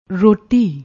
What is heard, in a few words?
"roti", Punjabi